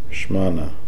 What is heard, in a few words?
"Shmana", pronounciations; Fourth Way; Beelzebub